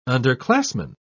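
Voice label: "underclassman", British English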